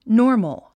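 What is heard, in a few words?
"normal", English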